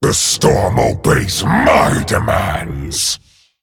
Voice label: Male Dragon Mirror Greetings